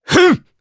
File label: Vox Attack jp; voices heroes jp; Dimael; kingsraid